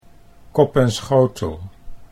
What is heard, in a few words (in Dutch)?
"kop en schotel", Dutch